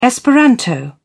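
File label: "esperanto", British English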